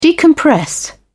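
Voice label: "decompress", British English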